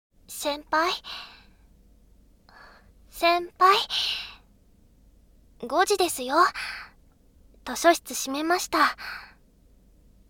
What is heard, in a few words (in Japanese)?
左 声のみ ノイズ除去なし